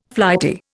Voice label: "flighty", Indian